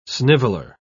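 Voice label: "sniveler", British English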